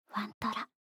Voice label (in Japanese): タイトルコール